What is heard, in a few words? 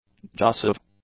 "jussive", english; adjectives